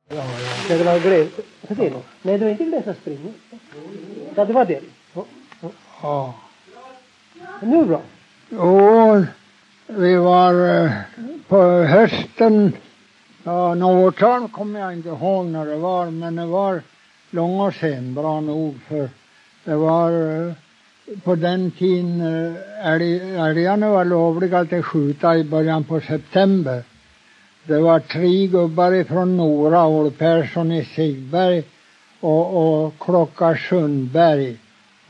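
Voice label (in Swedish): Huddunge Uppland, dialekter; Folkminnen; Svenska sagor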